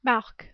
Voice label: "Marc", French